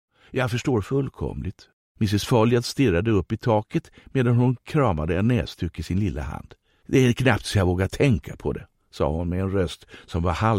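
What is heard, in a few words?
Swedish audiobook male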